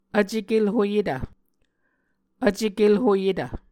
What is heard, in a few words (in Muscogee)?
"vcekelhoyetv", Muscogee